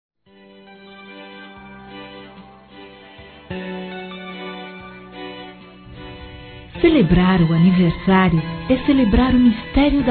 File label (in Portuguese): Pessoa Especial Feminino; Aniversários; Aniversários diversos